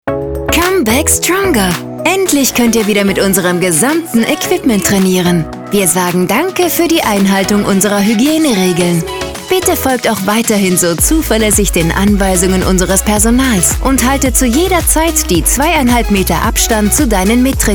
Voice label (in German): Werbung sportlich motivierend; FitnessFirst